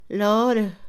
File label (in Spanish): "loro", Mixe, Mujer; Animales; INALI